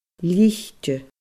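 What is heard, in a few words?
"lite", Scottish Gaelic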